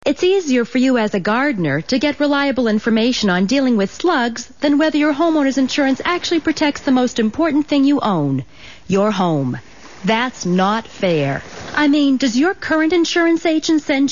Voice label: radio ad